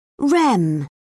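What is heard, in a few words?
"rem", British English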